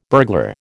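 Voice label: "burglar", American English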